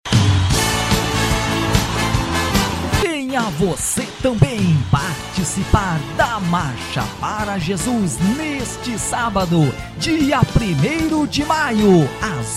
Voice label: locutor rodeios radio; radio medianeira; marcha para jesus; santa maria rs

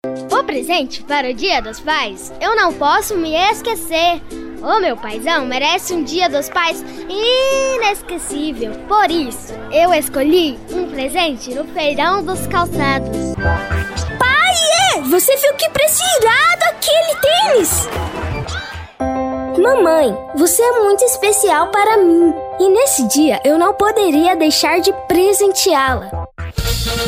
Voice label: voz infantil

